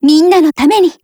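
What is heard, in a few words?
PHRASE, Japanese; RPD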